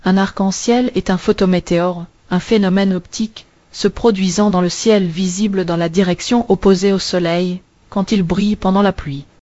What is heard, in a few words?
speaker, fr FR; piper voices